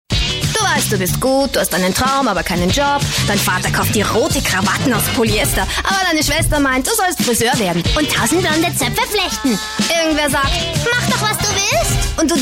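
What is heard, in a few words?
german austrian female commercial; voice demos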